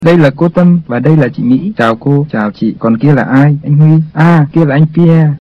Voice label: lesson dialog, vietnamese